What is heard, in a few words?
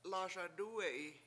lASadUwe7i I urinate, Washo